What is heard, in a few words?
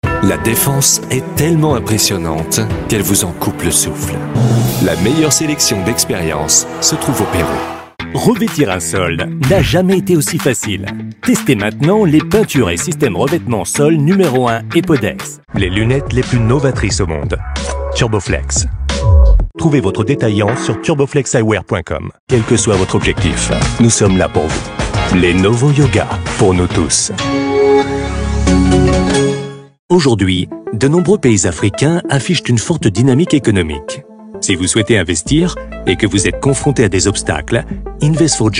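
French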